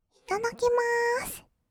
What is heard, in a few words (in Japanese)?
いただきまーす, kawaii voice; ASMR; 挨拶; 1200 kawaii voice!!